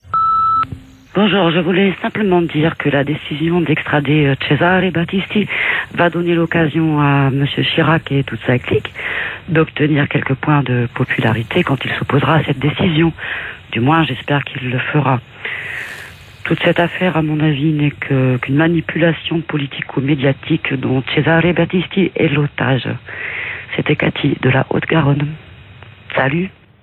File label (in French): Appel repondeur; Cesare Battisti